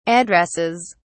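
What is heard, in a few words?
"addresses", British English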